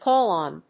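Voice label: "call on", English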